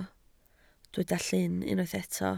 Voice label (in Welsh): "llyn", Welsh